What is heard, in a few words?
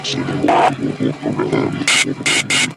mumble; freak fortress 2; fnaf; night5